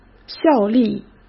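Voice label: "效力", Mandarin Chinese; chinese-flashcards; hsk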